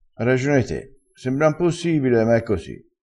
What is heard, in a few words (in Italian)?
"te", crespinese